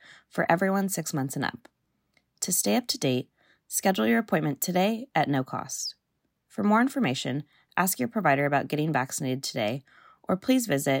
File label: COVID19 Booster Hold Message